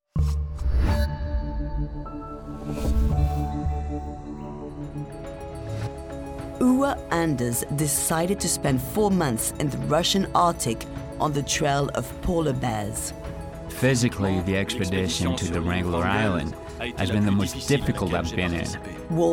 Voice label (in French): Bande Annonce, GB; Chaine Animaux